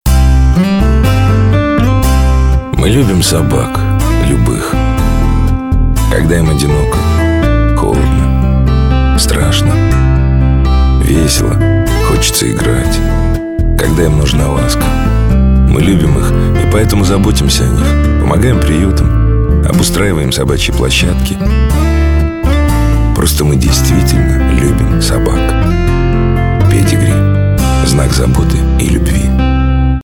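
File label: Russian TV commercial; Russian Male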